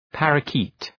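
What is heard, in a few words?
"parrakeet", English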